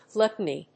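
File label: "gluttony", English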